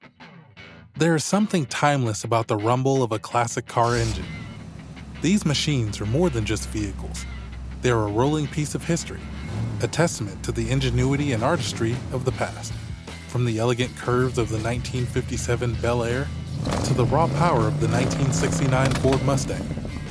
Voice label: Car Documentary Sample, Classic Car Doc Snippet, Friendly, conversational, approachable Male Voice